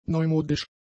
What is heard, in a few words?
"neumodisch", German; adjectives